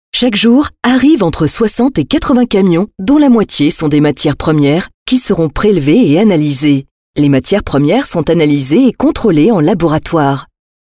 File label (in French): commentaire